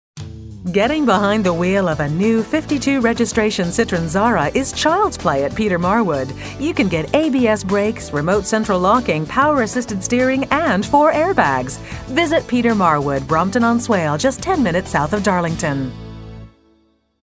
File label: Commercial, Voiceover; Voices Custom